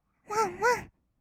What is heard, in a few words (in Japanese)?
"わんわん", kawaii voice; ASMR; 色々なワンショット; 1200 kawaii voice!!